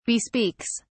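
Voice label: "bespeaks", British English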